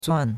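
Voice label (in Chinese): "zuan4" Chinese; Cours-de-Chinois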